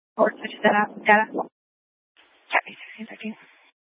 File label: Police Dispatch; Police 1 Dispatch